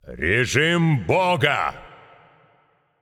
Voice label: Announcer Russian Spree Kills

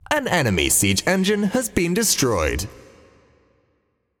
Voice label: Announcer Match Siege Enemy Destroyed

